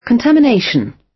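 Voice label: "contamination", British English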